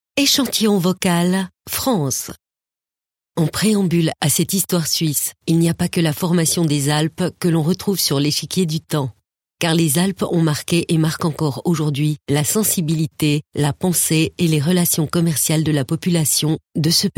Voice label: OFF FR CH